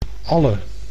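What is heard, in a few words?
"alle", Dutch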